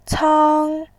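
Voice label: "cang", Mandarin Chinese, tone 1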